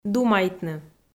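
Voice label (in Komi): "думайтны", Komi, female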